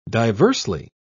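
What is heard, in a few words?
"diversely", British English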